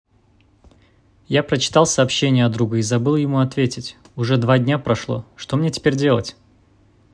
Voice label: russian prompt; aya expanse